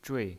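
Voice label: "zhui" Mandarin Chinese pinyin voice, fourth tone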